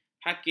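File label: "hakim", Malay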